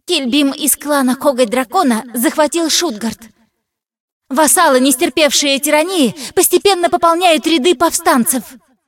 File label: cinematic voice, ru; lineage2